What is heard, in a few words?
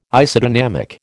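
"Isodynamic", American English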